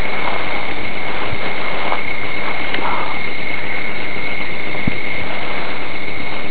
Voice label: help me evp